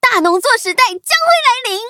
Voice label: levelup zh-hant; cookie run